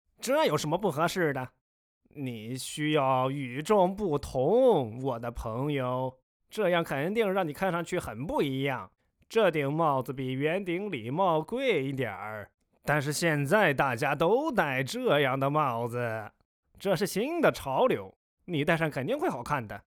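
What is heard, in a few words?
chinese mandarin male character; voice demos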